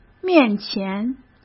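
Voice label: "面前", Mandarin Chinese; chinese flashcards; hsk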